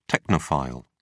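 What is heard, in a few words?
"technophile", British English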